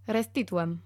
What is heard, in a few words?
female repair, latin